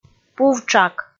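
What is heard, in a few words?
"пув-тшак", Komi, female; kpv audio dict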